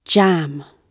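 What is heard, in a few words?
"jam", British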